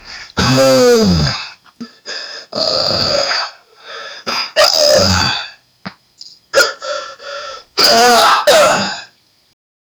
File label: comic style speech bubble, voice over